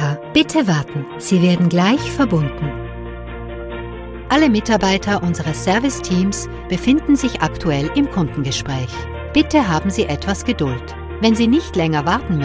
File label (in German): Telefonansagen